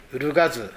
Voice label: "urugasu", minamiyamagata

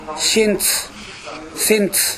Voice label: "sentu", minamiyamagata

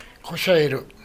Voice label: "koshaeru", minamiyamagata

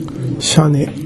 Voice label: "shane", minamiyamagata

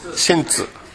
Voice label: "sentu", minamiyamagata

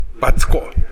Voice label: "battu", minamiyamagata

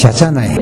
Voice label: "yachanae", minamiyamagata

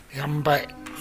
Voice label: "yanbae", minamiyamagata

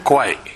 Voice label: "kowae", minamiyamagata; 疲れる。体調不良。疲れて苦しい。

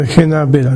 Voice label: "henabera", minamiyamagata

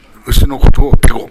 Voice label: "bego", minamiyamagata

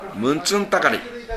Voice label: "muntun", minamiyamagata

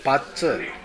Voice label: "battu", minamiyamagata

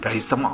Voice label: "raisama", minamiyamagata

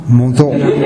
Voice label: "mozo", minamiyamagata

